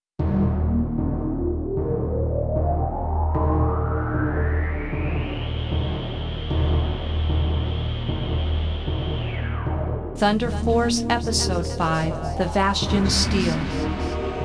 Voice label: Narration; Technosoft Game Music Collection Vol. 10; Prologue of Thunder Force V